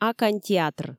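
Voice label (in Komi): "акань театр", Komi, female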